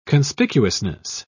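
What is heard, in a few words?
"conspicuousness", English, British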